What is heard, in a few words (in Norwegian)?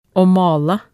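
"aa" Norwegian, male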